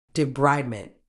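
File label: "debridement", British English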